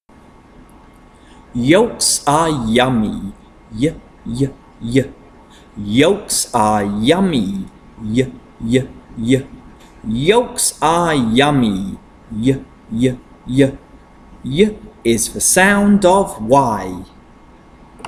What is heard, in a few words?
consonant chant y